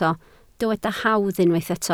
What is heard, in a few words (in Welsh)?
"hawdd", Welsh